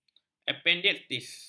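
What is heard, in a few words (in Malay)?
"apendisitis", Malay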